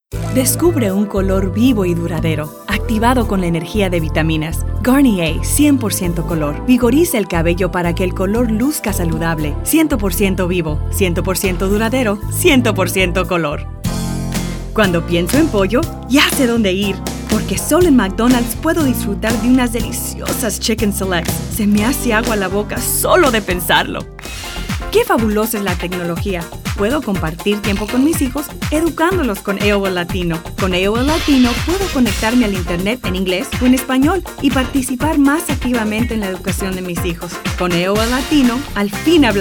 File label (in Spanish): Spanish, spanish females